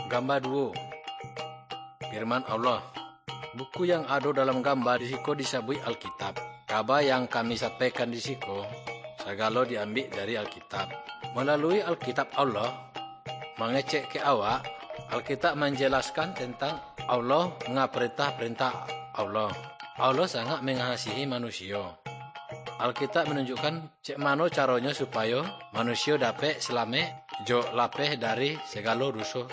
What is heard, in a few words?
good news minangkabau muko muko; kaba ilo